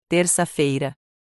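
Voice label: "Terça-feira", Portuguese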